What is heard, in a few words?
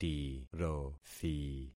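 "tirothi", concatenated syllables; Affixing experiment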